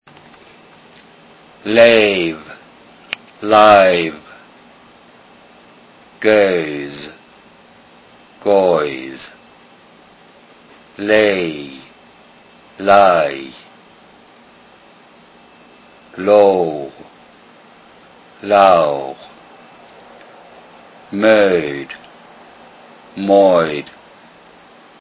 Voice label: diph over yes